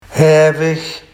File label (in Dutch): "haevig", Barghs